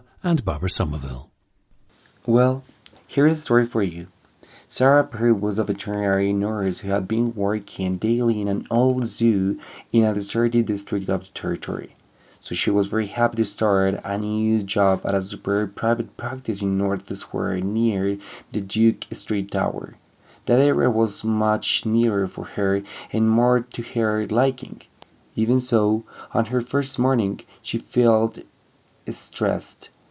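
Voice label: colombia, International Dialects of English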